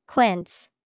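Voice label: "Quince", English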